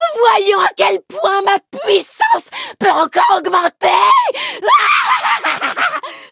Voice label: levelup, fr; cookierunwiki